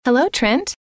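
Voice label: "hello trent", female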